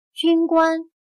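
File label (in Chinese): "军官" Mandarin Chinese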